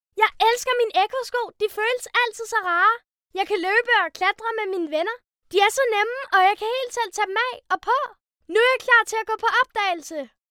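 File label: Danish, female, Commercial Happy Energy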